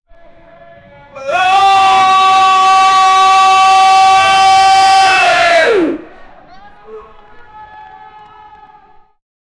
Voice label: deep loud umpire voice, baseball